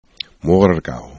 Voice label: "morgaux", esperanto

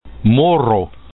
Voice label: "moro", esperanto

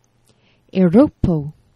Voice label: "euxropo", esperanto; geneva

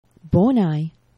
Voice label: "bonaj", esperanto; geneva

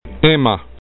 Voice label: "ema", esperanto

